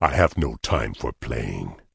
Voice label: Tauren Male Warrior NPC Pissed

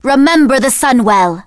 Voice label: Blood Elf Female Standard Farewell

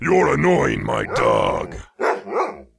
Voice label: Wolf Rider Pissed

